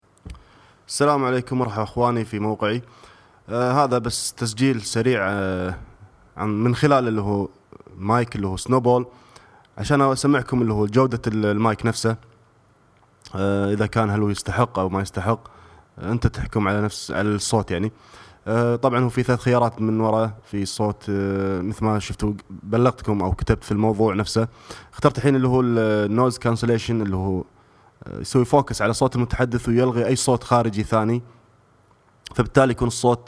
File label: Snowball Mic Test